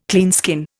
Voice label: "cleanskin", Indian

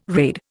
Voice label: "raid", Indian

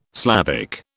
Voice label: "Slavic", British

